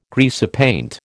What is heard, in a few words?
"greasepaint", American